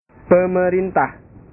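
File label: "pemerintah", Indonesian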